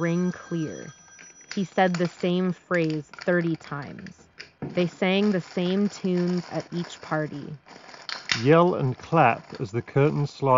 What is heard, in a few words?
Yamaha YHE700 Office microphone sample